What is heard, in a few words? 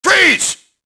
police alert; enemy; Max Payne